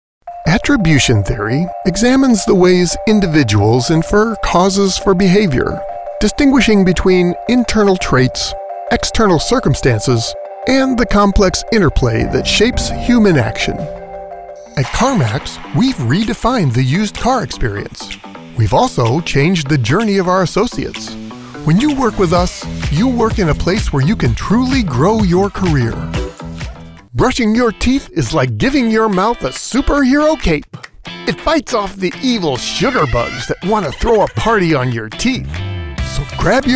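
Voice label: narration, voiceover; Voiceover Artist; Narration Demo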